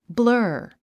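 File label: "blur", English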